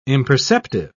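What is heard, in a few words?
"imperceptive", British English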